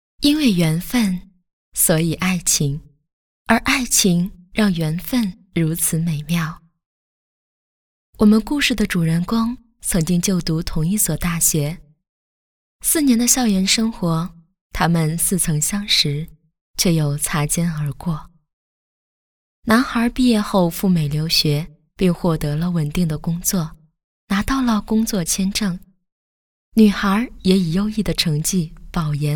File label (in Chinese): 旁白婚礼; 婚礼视频